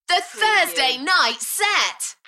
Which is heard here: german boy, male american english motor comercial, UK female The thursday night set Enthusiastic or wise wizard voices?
UK female The thursday night set Enthusiastic